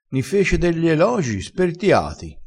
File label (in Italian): "spertiato", crespinese